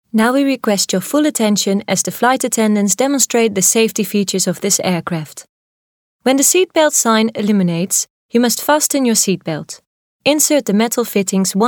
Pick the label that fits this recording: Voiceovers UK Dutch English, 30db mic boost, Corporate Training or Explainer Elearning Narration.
Voiceovers UK Dutch English